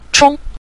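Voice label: "chong", Mandarin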